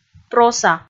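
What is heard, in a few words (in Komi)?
"проса" Komi, female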